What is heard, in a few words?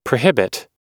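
"prohibit", American English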